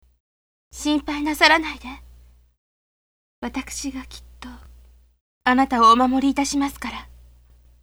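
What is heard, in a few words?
female; 女の子; 守りの誓い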